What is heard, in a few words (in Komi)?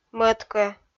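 "мӧд-кӧ", Komi, female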